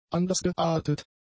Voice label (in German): "andersgeartet", German; adjectives